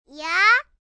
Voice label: "Ya", Response, 1 boy, 4 yrs.; Talking Sound Effects